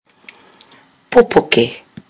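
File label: "popoke", Māori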